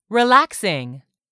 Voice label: "relaxing", English; 3000 words

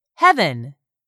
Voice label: "HEAVEN", english; 3000 words

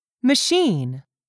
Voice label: "machine", English; 3000 words

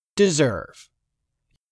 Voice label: "deserve", English; 3000 words

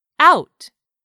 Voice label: "out", English; 3000 words

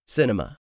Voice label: "CINEMA", english; 3000 words

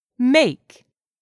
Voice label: "make", English; 3000 words

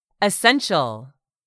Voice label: "essential", English; 3000 words

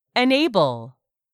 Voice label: "enable", English; 3000 words

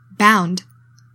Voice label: "bound", english; 3000 words

